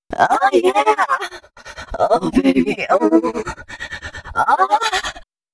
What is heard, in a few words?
female c#133, adlips